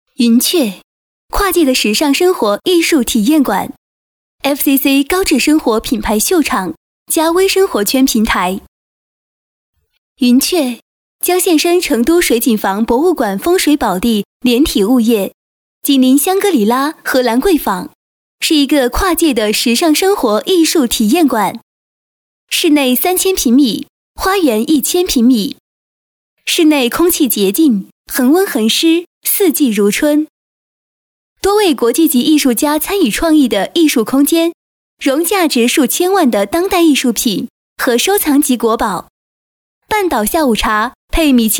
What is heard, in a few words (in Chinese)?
女 专题; 国语女声配音; 新动向